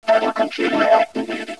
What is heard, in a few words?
robot voice; valve; cinima